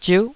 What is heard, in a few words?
"ziuh9", taiwanese